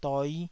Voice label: "doi5", Taiwanese

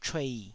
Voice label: "chuei3", Taiwanese

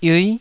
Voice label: "yiui9", taiwanese

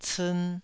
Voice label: "ciin2", Taiwanese

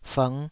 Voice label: "fng5", Taiwanese

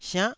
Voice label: "siannh9", Taiwanese

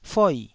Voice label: "foi4", taiwanese syllable

